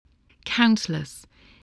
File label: "countless", voice spelling